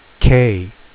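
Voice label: name "k", 英語アルファベットの文字の名前; phonics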